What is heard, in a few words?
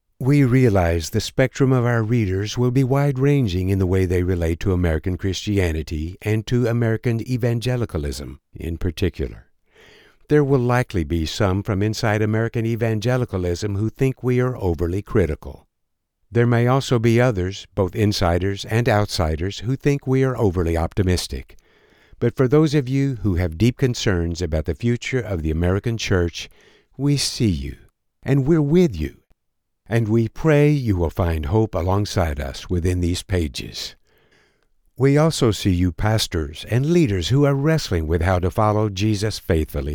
non-fiction, narration; Ecclesiology; Inalienable